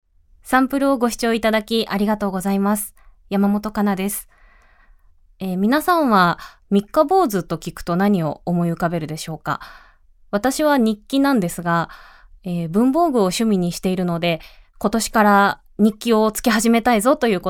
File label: Free Talk